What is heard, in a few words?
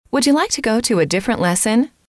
Would you like to go to a different lesson, F